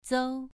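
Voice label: "zou2", Chinese pronunciation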